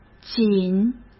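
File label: "仅", Mandarin Chinese; chinese-flashcards; hsk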